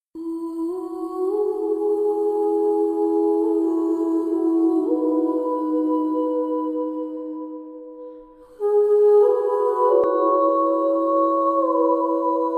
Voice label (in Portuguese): dn amante masc, dia dos namorados amantes voz masculina